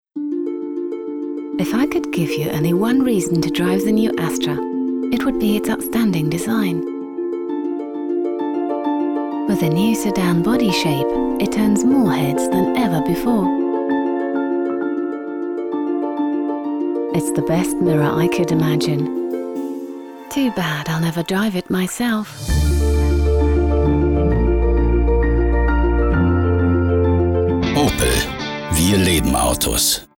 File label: EN Werbung; Opel